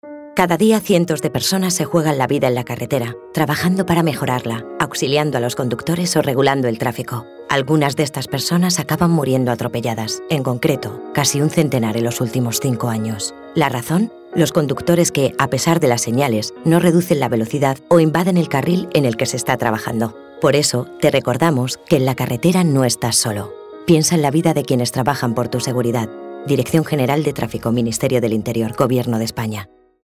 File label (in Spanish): CUNA DGT ATROPELLOS RADIO